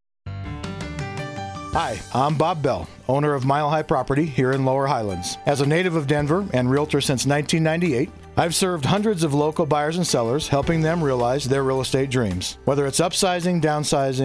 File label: advert